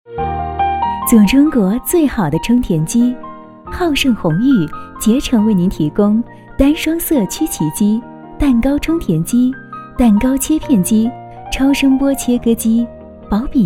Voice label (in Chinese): 彩铃; 充填机; 广告 > 电话彩铃; 浩胜充填机茉莉花